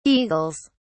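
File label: "eagles", British English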